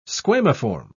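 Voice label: "squamiform", English, British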